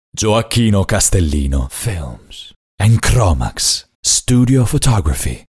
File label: Company presentatio